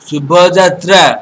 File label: bon voyage odia